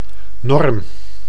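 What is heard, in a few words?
"norm", Dutch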